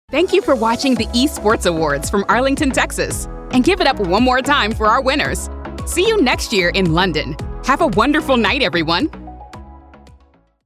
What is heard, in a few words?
Voice of God Youthful Upbeat Announcer E Sports Awards